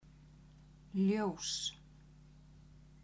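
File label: "ljos", Icelandic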